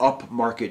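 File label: "upmarket", American English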